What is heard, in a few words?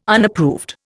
"Unapproved", Indian